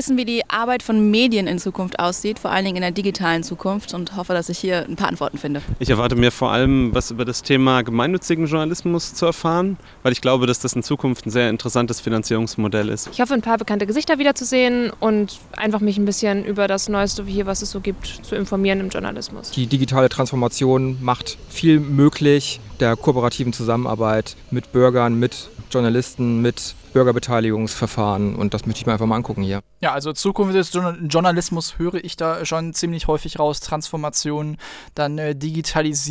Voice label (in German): Umfrage Was sind eure Erwartungen